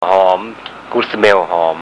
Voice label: "hoom" good smell, Thai

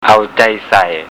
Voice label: "ao djai sai", Thai

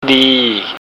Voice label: "dii", Thai

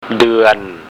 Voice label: "duean", Thai

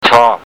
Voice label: "chop", Thai

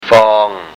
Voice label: "fong", Thai

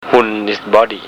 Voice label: "hun" body, Thai

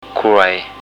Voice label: "kuai", Thai

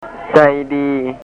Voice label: "djai dii", Thai

